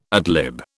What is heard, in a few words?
"ad lib", British